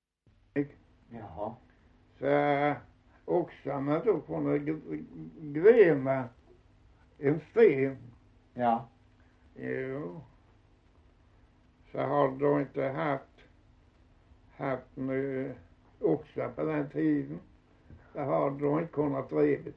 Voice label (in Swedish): Osby, dialekter; Folkminnen; Svenska sagor